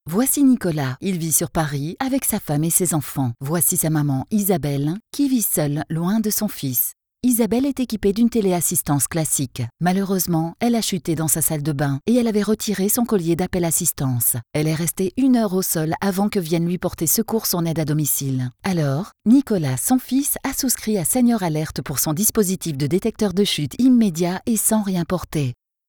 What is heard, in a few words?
french corporate videos